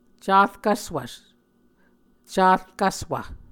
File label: "carkvswv", muscogee